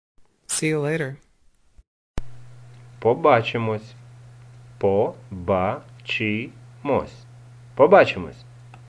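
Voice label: See you later, Ukrainian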